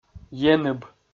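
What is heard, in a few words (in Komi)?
"еныб", Komi, male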